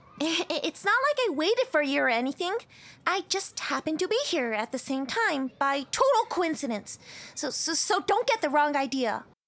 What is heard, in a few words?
foreigner